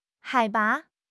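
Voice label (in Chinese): "海拔", Chinese; HSK6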